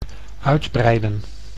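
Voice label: "uitbreiden", Dutch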